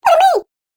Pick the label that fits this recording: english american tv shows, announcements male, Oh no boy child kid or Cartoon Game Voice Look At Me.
Cartoon Game Voice Look At Me